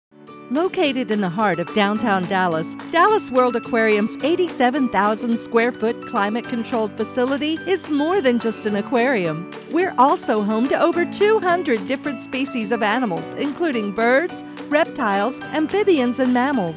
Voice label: Warm · Inviting · Engaging; Dallas World Aquarium